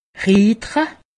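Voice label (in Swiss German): "riitere", Alsatian; medecine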